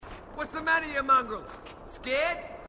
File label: marine, aussie; h2dialogue